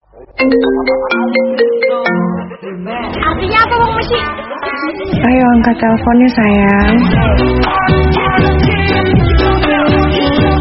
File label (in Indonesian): nada dering; ayo angkat teleponnya sayang